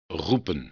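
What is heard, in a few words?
"roepen", Dutch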